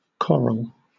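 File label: "coral", British English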